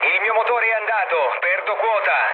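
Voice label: Italian damaged engine mood low, speech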